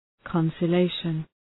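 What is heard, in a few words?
"conciliation", English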